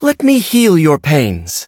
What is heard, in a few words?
loading, en